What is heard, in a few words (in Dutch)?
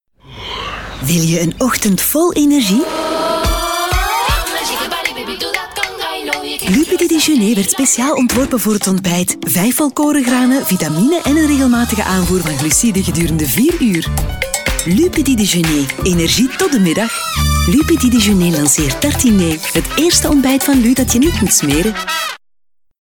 Commercial